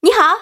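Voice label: "ni hao", Chinese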